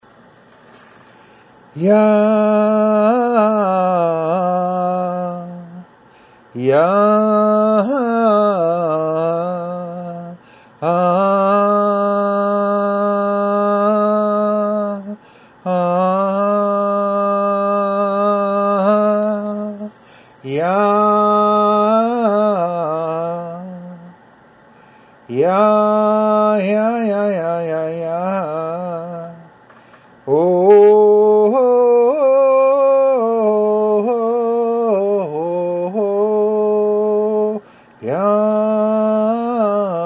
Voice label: voice, melodyne; micro